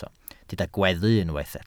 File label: "gweddu", Welsh